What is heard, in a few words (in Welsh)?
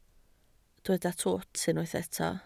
"twt", Welsh